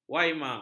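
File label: "waima", Malay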